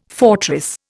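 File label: "fortress", Indian English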